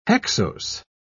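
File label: "hexose", English, British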